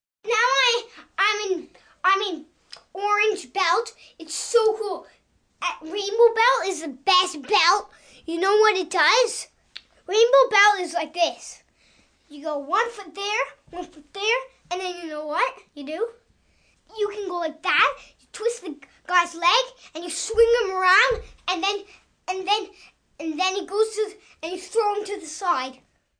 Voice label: Conversation, 1 boy, 6 yrs, talking about martial arts, comical, cute, male, kid; child, human voice, talk, speak